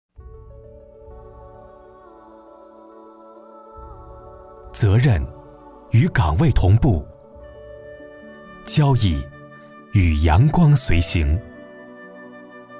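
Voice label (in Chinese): 中文宣传片开头; 银川宣传片开头样音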